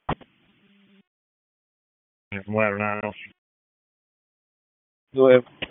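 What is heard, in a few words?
Public Safety Tactical, p25; wake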